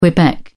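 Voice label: "quebec", British English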